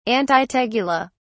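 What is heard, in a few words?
"antitegula", British English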